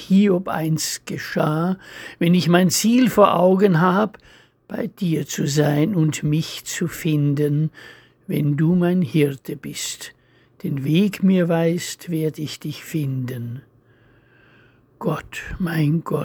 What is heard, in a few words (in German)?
Zuversicht, Lesung